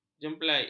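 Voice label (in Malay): "jempalik", Malay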